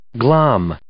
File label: "glom", English